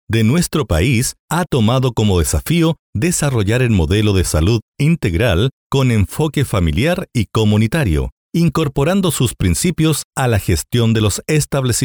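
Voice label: Spanish Latin America male voice over, narrative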